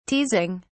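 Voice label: "teasing", British English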